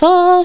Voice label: FEMALE